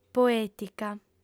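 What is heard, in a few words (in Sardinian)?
"poètica", female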